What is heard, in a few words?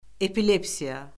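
"epilepsiya", Azerbaijani; Orfoqrafiya Lüğəti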